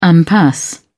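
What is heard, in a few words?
"impasse", British English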